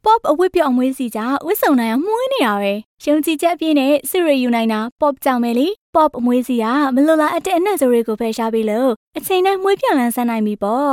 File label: burmese female